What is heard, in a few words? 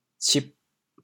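"cib4", Teochew, chaoyang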